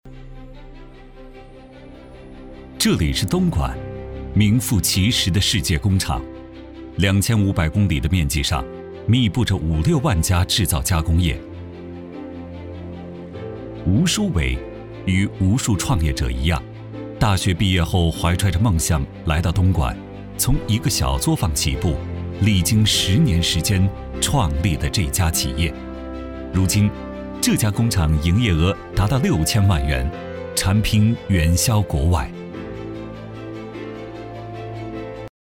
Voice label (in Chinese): 男 人物专题 大气磁性; 磁性舒缓; 纪实记录